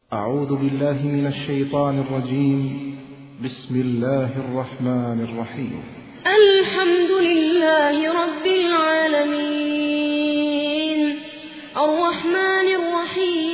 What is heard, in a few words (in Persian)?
ترتیل سوره فاتحه الکتاب حمد; محمد البراک